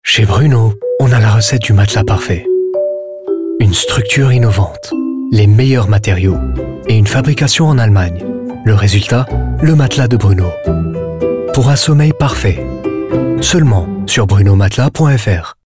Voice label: french promos